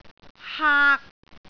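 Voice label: "haak3", Cantonese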